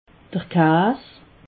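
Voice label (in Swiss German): "kaas", Alsatian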